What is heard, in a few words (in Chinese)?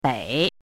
"bei3", 汉字语音; chinese-voice